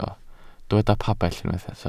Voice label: "pabell", Welsh